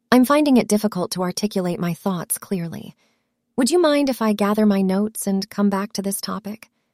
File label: synthesized audio